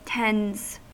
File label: "tends", US; pronunciations